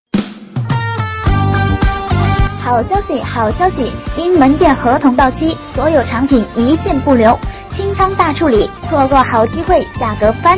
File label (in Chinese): 女 促销